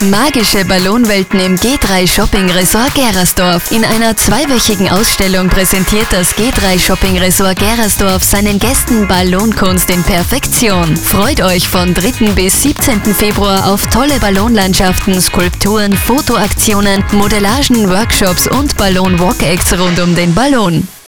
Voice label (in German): Spot Shopping